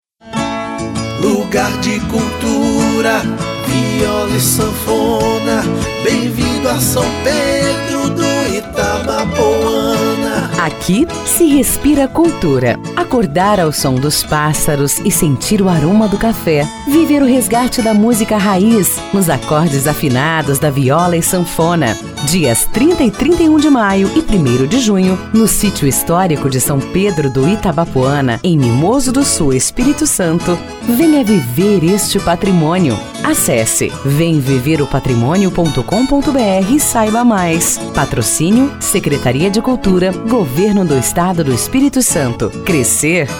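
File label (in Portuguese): Gravação Spot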